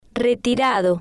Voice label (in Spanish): "retirado", spanish